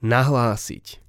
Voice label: "nahlasit", slovencina

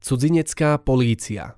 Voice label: "cudzinecka policia", slovencina

